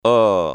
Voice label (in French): o ouvert, comme dans boss; comme dans bosse